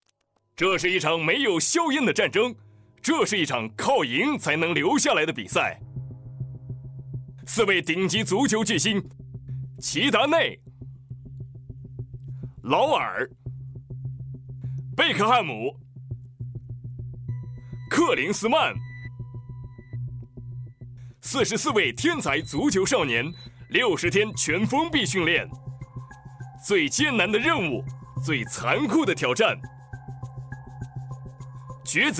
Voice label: 电视节预告; 四川电视节预告

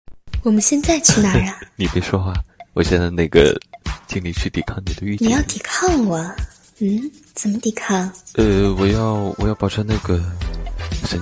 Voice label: 御姐音; 吃鸡

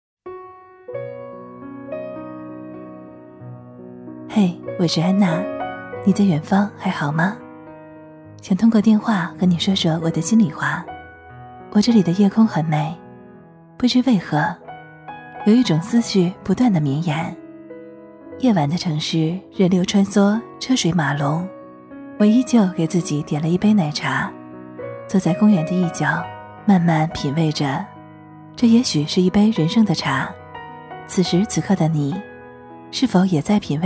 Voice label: 视频温柔旁白

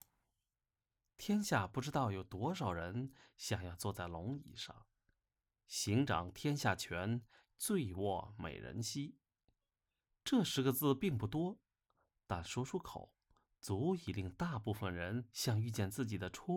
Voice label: 穿越戏旁白

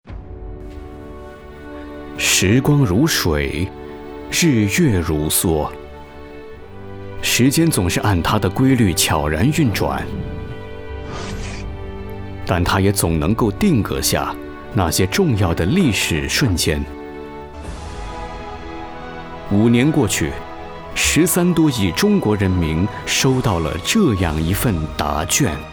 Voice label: 时尚 大气宣传专题

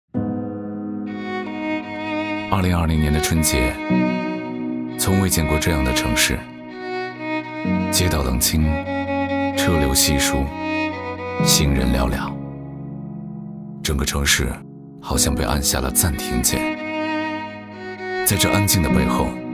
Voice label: 疫情类走心旁白; 韩城暖春

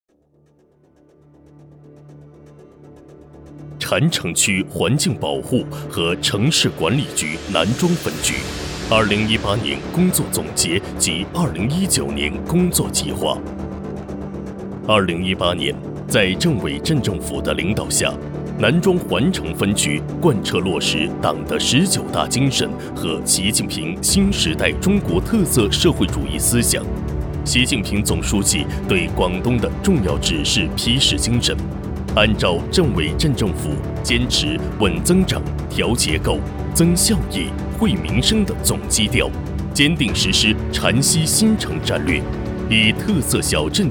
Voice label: 汇报大气市政宣传

